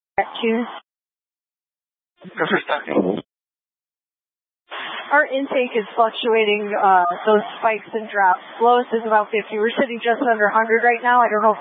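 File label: Incident Tactical 2, Scanner audio recording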